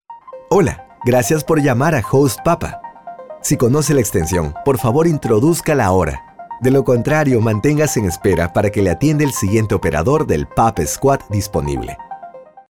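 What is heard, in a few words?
Spanish Americas, male, voice over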